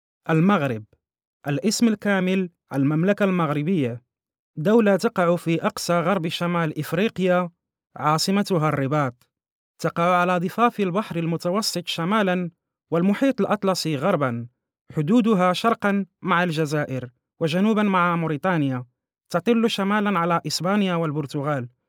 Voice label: locutor arabe marruecos arabic voiceover; Arabic Maroccan voiceover